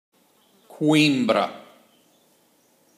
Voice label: "Coimbra", Portugal